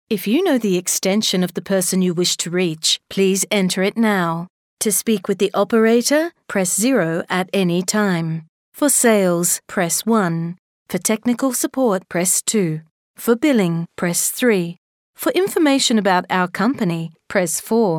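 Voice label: English Australian, female, voice over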